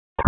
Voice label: Police Northwest District Tac 1; Raleigh; wake